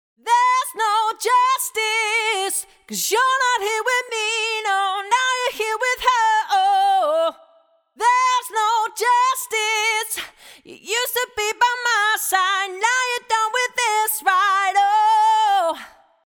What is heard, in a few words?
vo normal comp